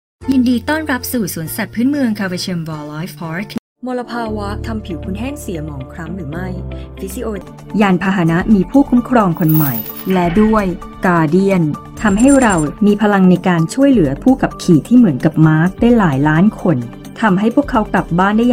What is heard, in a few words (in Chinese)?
泰语女 各类广告